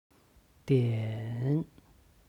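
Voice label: "dian", Chinese; 点; HSK Level One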